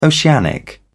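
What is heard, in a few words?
"oceanic", British English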